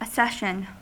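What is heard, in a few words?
"accession", US English; pronunciation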